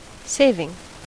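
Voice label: "saving", American English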